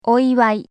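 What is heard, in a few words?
"oiwai", Japanese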